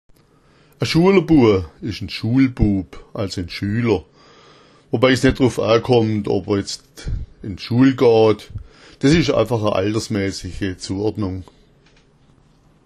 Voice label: "schualerbua", schwaebisch

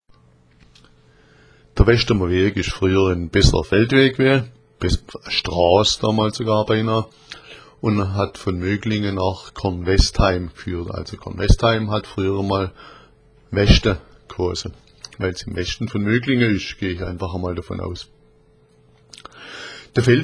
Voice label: weschdemer, schwaebisch

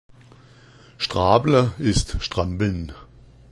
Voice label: strabla, schwaebisch

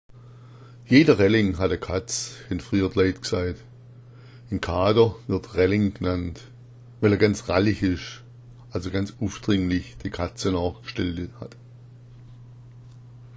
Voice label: "relleng", schwaebisch

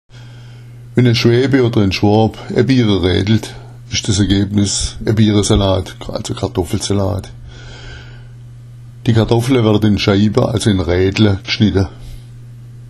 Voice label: "aebiera raedla", schwaebisch

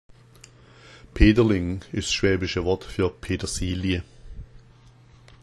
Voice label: peterleng, schwaebisch

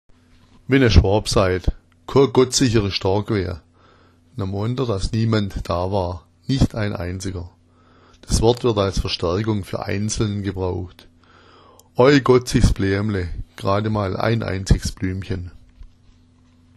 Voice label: gotzich, schwaebisch

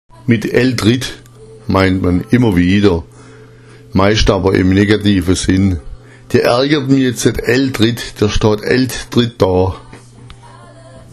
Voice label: aelltritt, schwaebisch

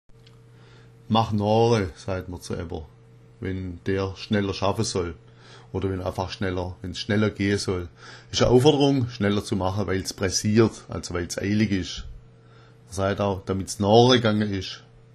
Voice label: "nore", schwaebisch

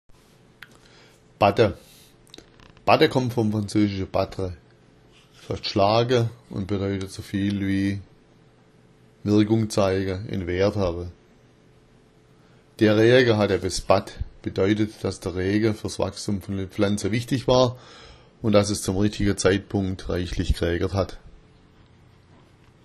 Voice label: "batta", schwaebisch

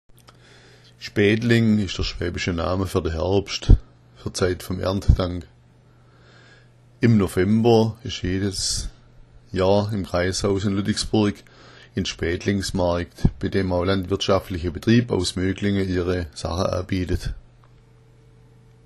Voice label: spaetleng, schwaebisch

